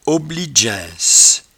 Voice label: "oblidgeaince", patois jura